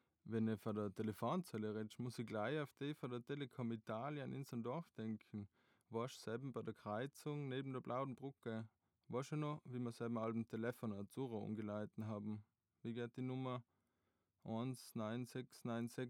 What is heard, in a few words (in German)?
dialekt; Literarisch-akustische, interaktive Ausstellung